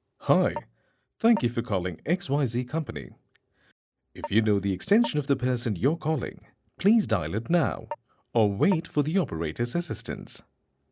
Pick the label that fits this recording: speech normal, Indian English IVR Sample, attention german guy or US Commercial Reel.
Indian English IVR Sample